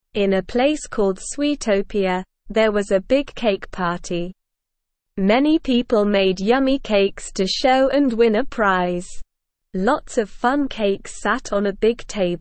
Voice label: ESL Short Stories for Kids Beginner SLOW Reading; The Great Cake Contest